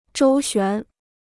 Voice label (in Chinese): "周旋", Chinese